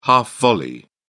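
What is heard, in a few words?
"half volley", British English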